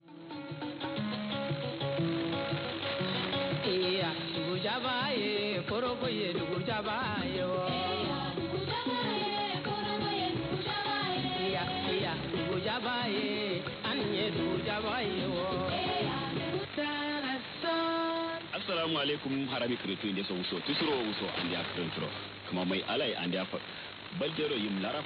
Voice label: 9535 kHz, kannada; Radio Ndarason International; Perseus; Schwarzsee

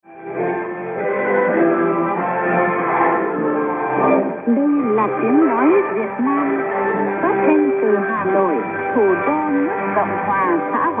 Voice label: 9550 KHz, vietnamese; Voice of Vietnam; Hanoi

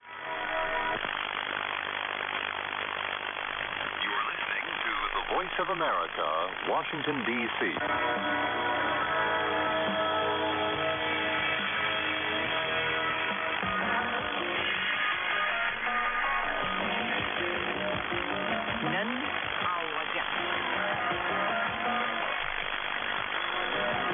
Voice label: 12075 kHz, dari; VOA Radio Ashna; Perseus; Schwarzsee

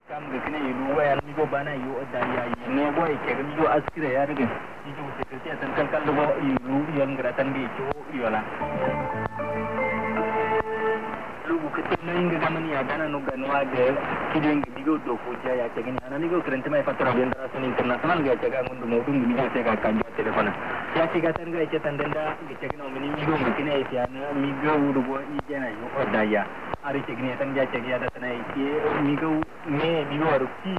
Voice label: 12050 kHz, kanuri; Radio Ndarason International; FT2000; dipolo

